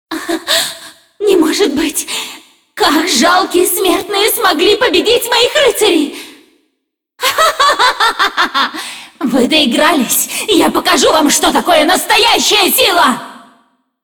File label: cinematic voice, ru; lineage2